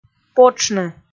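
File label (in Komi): "потшны" Komi, female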